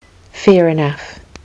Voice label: fair enough, kiwi english

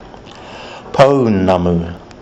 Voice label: "pounamu", kiwi english

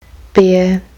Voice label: "bear", kiwi english

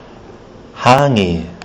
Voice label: "hangi", kiwi english

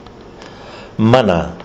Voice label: "mana", kiwi english

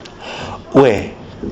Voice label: oe as in koe, kiwi english

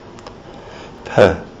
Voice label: p as in pakeha, kiwi english

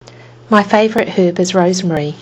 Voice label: my favourite herb is, kiwi english

